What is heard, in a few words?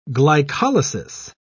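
"glycolysis", English, British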